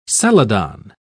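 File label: "celadon", British English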